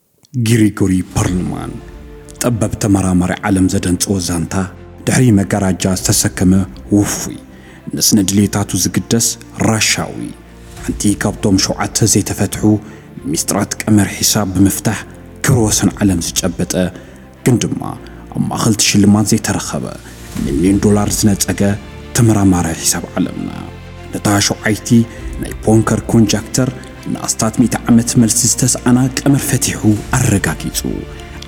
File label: Tigrigna narration